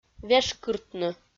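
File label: "вешкыртны", Komi, female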